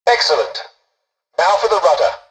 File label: tutorial dialogue, english; War Thunder